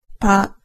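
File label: "pa", Japanese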